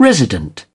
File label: resident uk